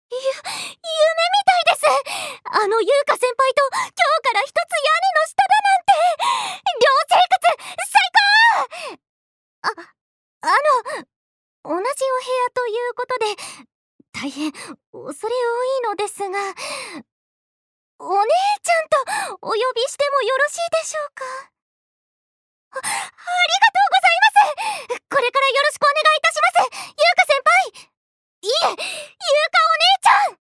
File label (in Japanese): アニメ